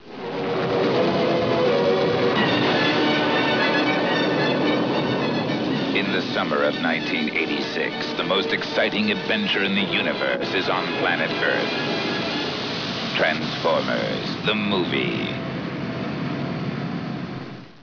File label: radio ad; Transformers movie 1986